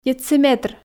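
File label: "дециметр", Komi, female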